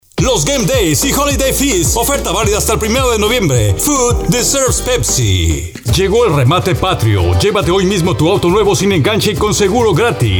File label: Male